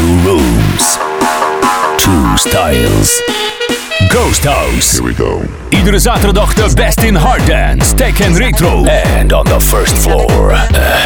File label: party commercial; ghosthouse algemeen